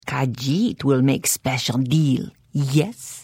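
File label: Service, Khajiit female; The Elder Scrolls III - Morrowind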